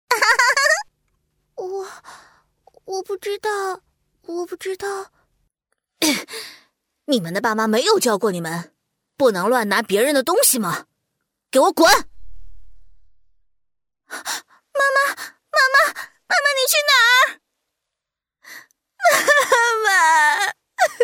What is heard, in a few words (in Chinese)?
中文 角色 女童 女孩 少年